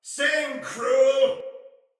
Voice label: See Ally Hit, Soldier Male; Sinecure Soldier Male A